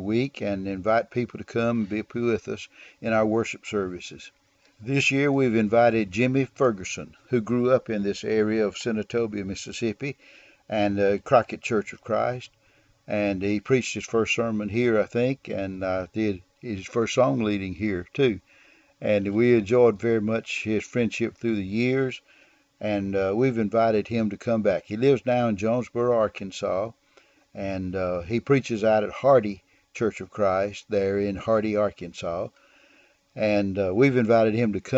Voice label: Gospel Sermons